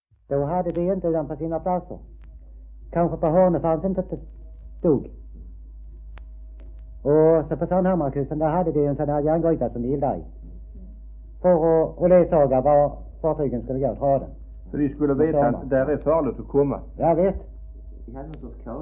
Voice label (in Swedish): Degeberga SK, dialekter; Folkminnen; Svenska sagor